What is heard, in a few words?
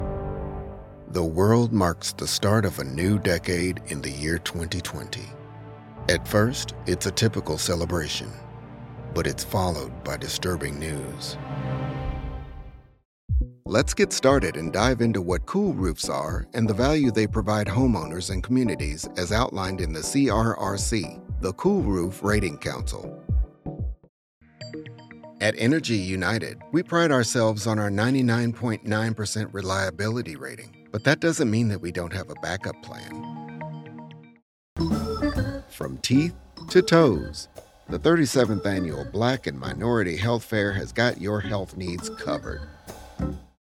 narration